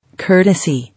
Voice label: "Courtesy" English